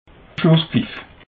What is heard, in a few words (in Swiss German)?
"schlusspfiff", alsace